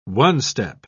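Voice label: "one-step", English, British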